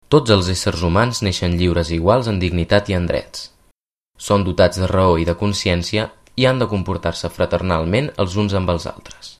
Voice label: Catalan